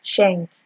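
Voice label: "shenk", Nigerian Pidgin, female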